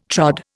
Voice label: "trod", Indian

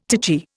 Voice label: "titchy", Indian

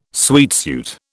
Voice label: "sweatsuit", British

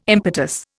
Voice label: "impetus", Indian

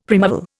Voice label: "primeval", Indian; Dictionary

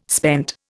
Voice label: "spent", Indian

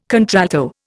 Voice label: "contralto", Indian

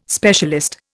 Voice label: "specialist", Indian

